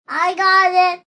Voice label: Kids I Got It, Male, child; Talking Sound Effects